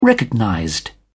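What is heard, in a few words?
"recognized", British English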